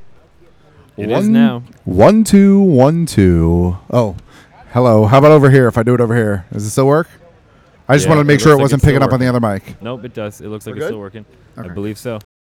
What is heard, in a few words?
Male Radio; NYCC 2013